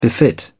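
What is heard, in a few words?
"befit", British English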